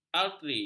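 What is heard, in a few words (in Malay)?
"arteri", Malay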